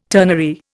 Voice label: "ternary", Indian